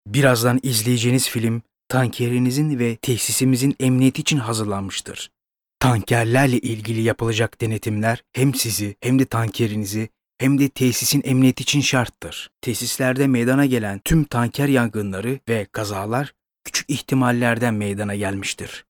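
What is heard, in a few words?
Turkish male E-learning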